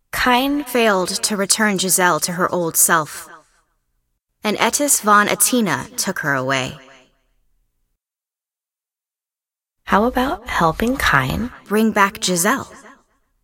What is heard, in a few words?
cinematic voice; lineage2